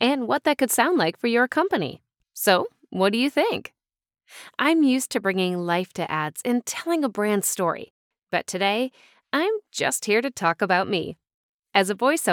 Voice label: IVR Demo Script